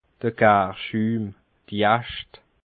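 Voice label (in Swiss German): "garschum", Alsatian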